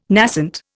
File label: "nascent", Indian